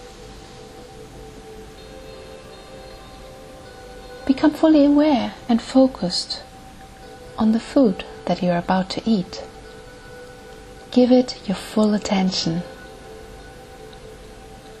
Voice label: mindful eating mini meditation